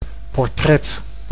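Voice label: "portret", Dutch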